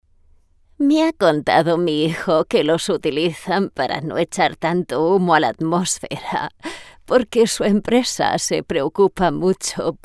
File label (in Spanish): locutora abuela senior spanish voiceover; voces ancianos